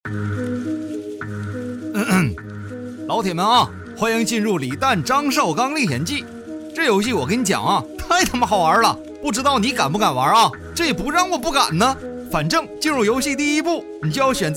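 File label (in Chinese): 男方言, 东北方言; 吐槽大会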